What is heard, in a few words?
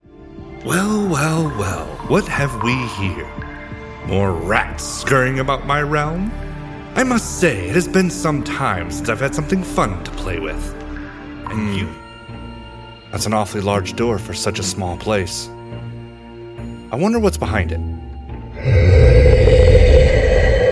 Character